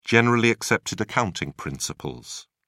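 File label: "generally accepted accounting principles", British English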